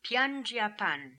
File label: "Piandjiapan", pronounciations; Fourth Way; Beelzebub